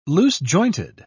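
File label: "loose-jointed", British English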